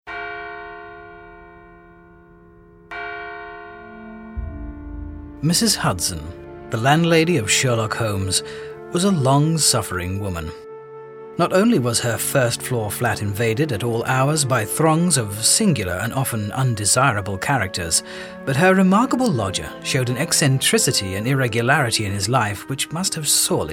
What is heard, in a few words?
audiobook; sherlock